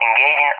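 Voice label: "engaging", English, Spain; DCS; Allied Flight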